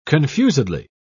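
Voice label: "confusedly", British English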